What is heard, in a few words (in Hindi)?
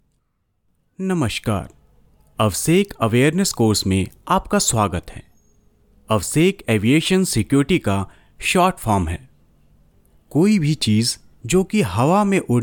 hindi